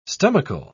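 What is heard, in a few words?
"stomachal", English, British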